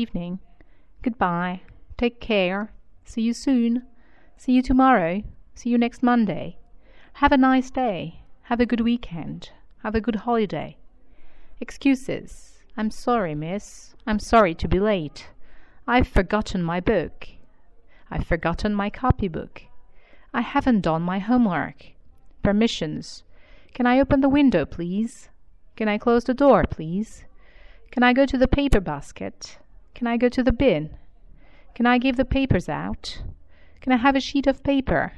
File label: Classroom English